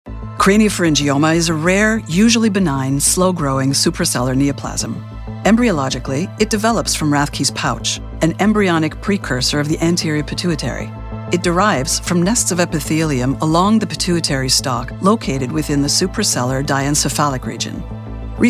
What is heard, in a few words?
english canadian narration, canadian english voice actors